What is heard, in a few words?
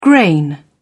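"grain", English, British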